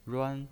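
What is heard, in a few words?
"ruan", Mandarin Chinese pinyin voice, first tone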